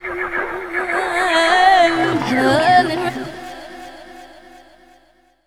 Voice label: Stoned Vocal, Jungle